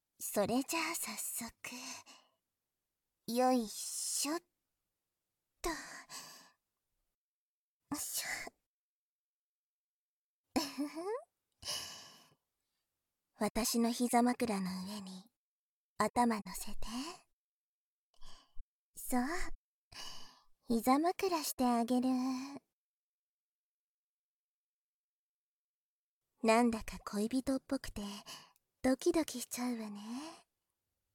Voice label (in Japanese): （バイノーラルマイク 効果音なし）; 触手オナホで精液チェック